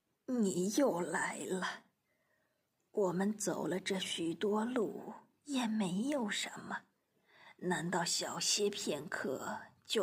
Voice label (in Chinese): 老年音